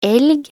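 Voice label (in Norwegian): "elg", Norwegian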